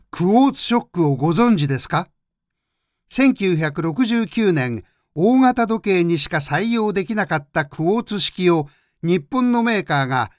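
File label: male, Japanese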